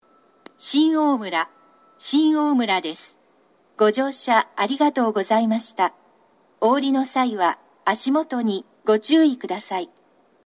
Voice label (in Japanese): 到着放送 女声; shin omura